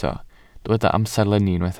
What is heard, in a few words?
"amserlenni", Welsh